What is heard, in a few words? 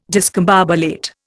"discombobulate", Indian